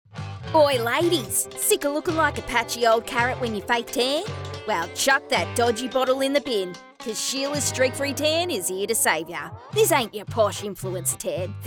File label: Commercial